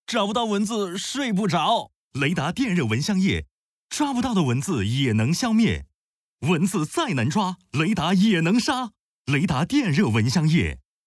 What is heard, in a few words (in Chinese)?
男 自然风格, 国语男声配音